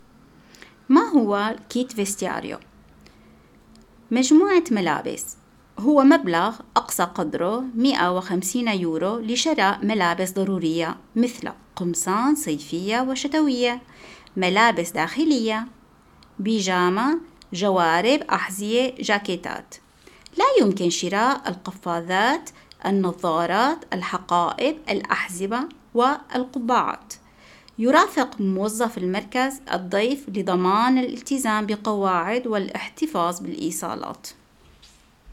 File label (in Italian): kit vestiario, arabo